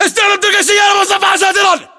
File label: order cover armored vehicle, battle chatter, english